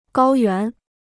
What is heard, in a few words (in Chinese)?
"高原", Chinese